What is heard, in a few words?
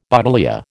"buddleia", American